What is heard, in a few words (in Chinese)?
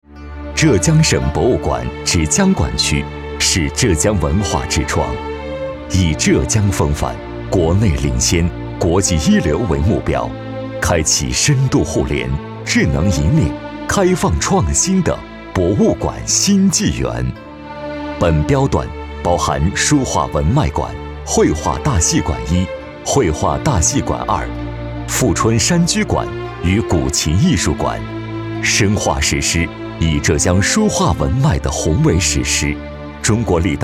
男, 博物馆, 厚重大气, 历史感; 浙江省之江